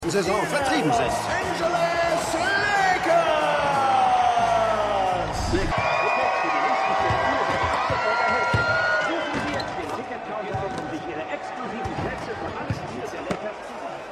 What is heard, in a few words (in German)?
Stadionsprecher; Winning Time